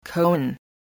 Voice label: "cone", english